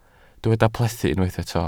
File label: "plethu", Welsh